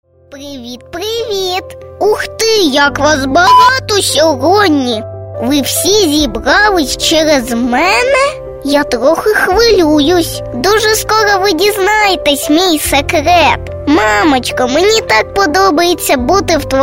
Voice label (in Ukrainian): ukrayinskoyu; avtorska pisnya